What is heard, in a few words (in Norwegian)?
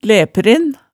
"læpren", hemsedalsmaalet tale